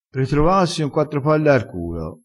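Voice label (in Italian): "ritrovassi", vocabolario dialettale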